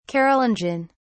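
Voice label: "carolingian", British English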